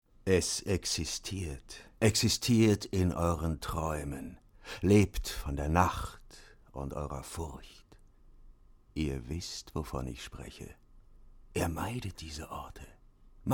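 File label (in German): Erzählung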